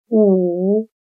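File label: "午" Chinese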